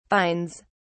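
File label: "vines", English, British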